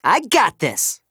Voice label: VO en